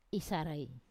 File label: "isarai", I dig, wamesa